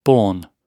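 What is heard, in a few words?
"borne", British English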